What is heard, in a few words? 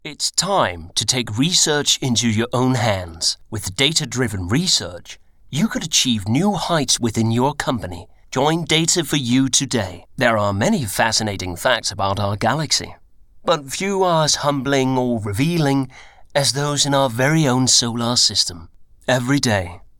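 Danish Accented English General; GoLocalise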